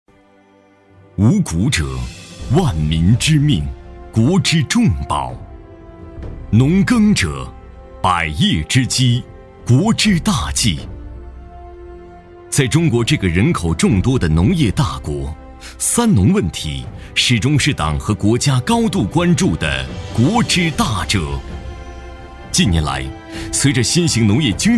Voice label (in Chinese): 男 宣传片配音 大气昂扬; 高端磁性; 大气激昂; 天津农担